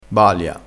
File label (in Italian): "balia", dialettale